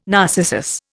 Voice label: "narcissus", Indian